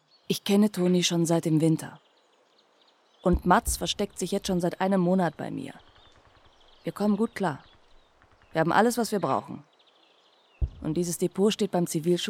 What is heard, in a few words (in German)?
sprachdemo